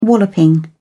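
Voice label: "walloping", English, British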